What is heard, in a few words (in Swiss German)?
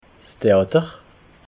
"theater", alsace